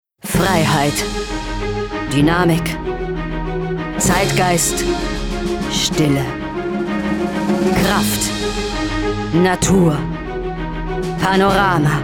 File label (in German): Autohaus, BER